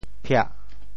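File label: "phiah4", Teochew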